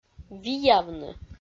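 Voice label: "виявны", Komi, female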